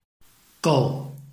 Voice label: "gou4", Chinese